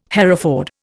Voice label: "Hereford", Indian